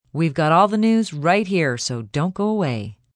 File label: Dont Go Away F, Broadcast, announcer, breakaway, female; VoiceBox